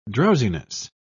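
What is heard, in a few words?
"drowsiness", British English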